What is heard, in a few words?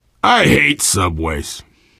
world hospital, player survivor voice biker